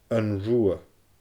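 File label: "An Rubha", Scottish Gaelic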